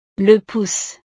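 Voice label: "le pouce", French; partes del cuerpo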